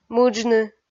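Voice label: "мыджны", Komi, female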